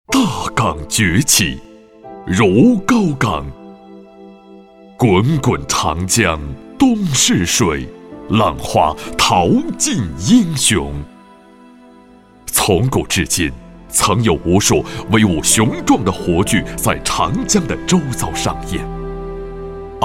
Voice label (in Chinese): 男, 专题; 大港崛起